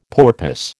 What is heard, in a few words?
"porpoise", American